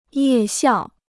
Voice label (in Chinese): "夜校", Chinese